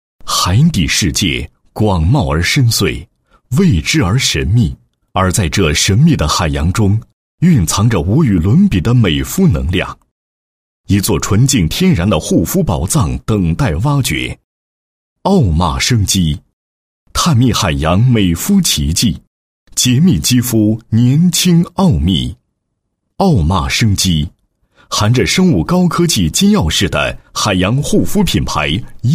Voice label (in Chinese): 男 品牌宣传 品牌宣传介绍; 奥玛生机